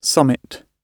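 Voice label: "summit", British English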